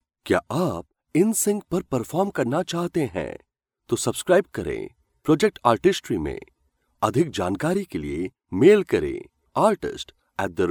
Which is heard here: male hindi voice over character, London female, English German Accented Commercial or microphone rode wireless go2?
male hindi voice over character